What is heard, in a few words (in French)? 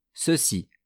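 "ceci", French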